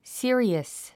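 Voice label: "serious", English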